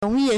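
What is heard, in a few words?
"nong2ye4", Mandarin Chinese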